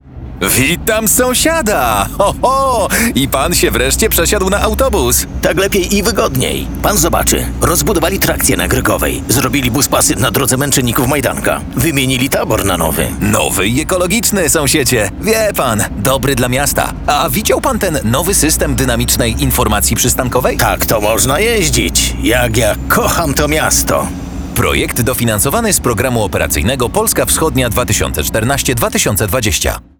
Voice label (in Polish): spot radiowy; transport